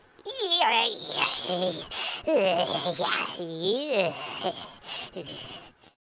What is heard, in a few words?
angry